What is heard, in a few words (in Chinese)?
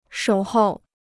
"守候", Chinese